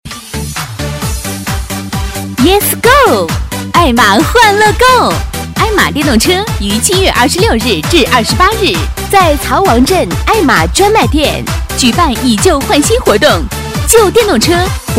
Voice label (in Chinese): 女 促销 电动车; 爱玛电动车